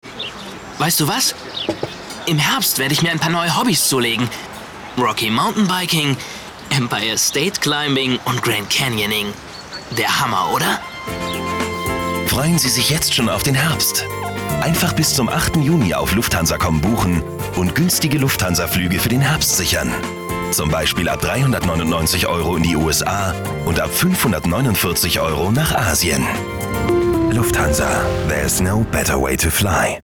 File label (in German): de werbung, deutsch maenner